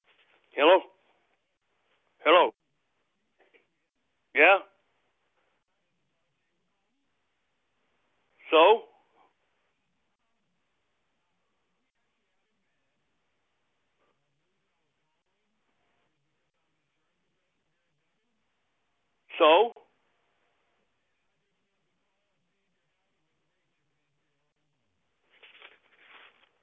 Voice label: Cold Call Hung Up